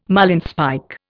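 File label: "marlinspike", Indian English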